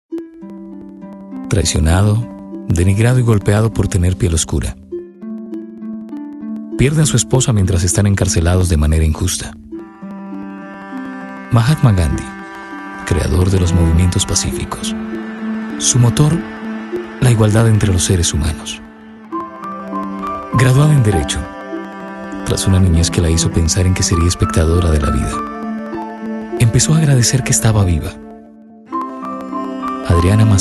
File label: Male 25 35 years Medium, spanish south america